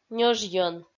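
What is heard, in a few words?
"ньожйӧн", Komi, female; audio dict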